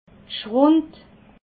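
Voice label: "schrund", Alsatian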